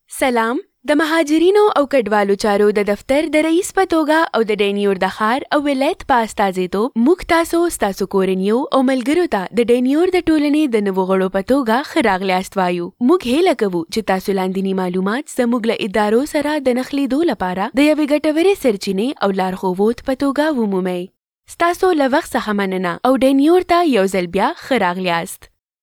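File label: Pashto Pakistan female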